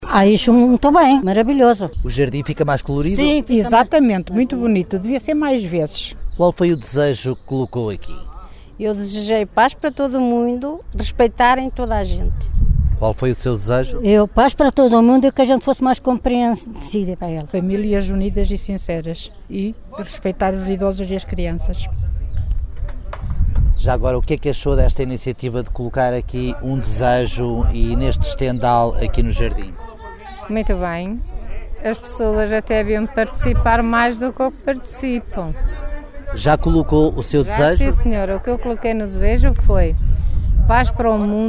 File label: vox pop